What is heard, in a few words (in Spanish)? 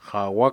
"gemelos", Cucapá; Parentesco